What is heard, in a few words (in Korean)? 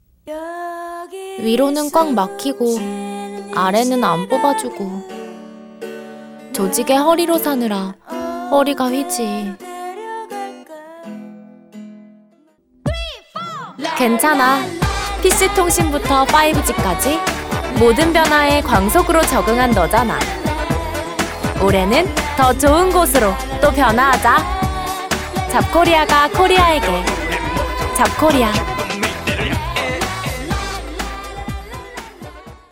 자연스럽고 친근한 일반인 톤; 잡코리아